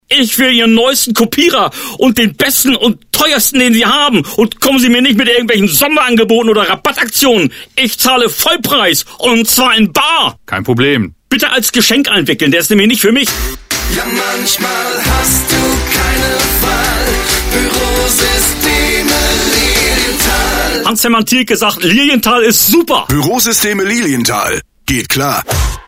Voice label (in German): Spot